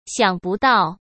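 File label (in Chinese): "想不到", Mandarin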